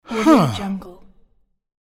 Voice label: man huh